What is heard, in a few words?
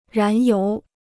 "燃油", Chinese